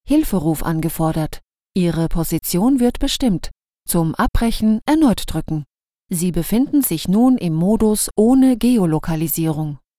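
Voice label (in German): Kommentar, Neutral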